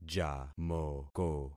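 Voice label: "jamoko", concatenated syllables; Affixing experiment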